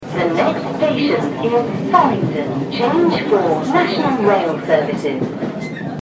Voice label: Next Farringdon, Underground, Circle line